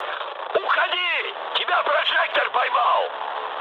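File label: searchlite, mood high, Russian; War Thunder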